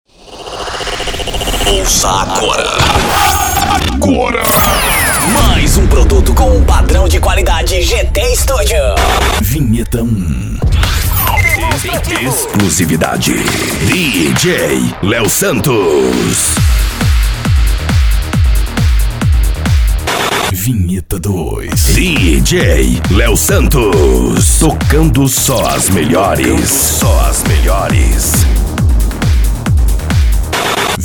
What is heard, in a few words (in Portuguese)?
vinhetas prontas para dj voz; gtestudio